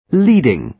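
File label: "leading", British English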